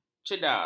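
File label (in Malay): "cedar", Malay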